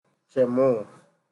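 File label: "chairmo", Nigerian Pidgin, male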